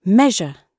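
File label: "measure" British English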